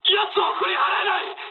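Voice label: aircraft damaged mood high, speech japanese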